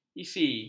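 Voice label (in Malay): "isi", Malay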